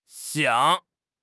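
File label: "xiang3", Chinese; HSK4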